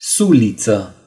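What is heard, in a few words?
"spear", Romanian; gcompris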